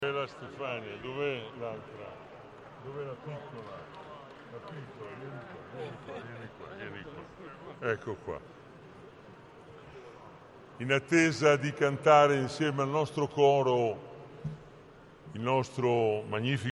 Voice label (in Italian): radioradicale rallentato